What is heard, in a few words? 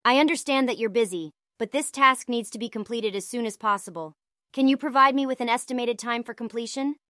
synthesized audio